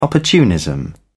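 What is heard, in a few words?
"opportunism", British English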